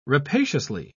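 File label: "rapaciously", British English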